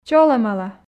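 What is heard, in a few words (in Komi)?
"чолӧмала", Komi, female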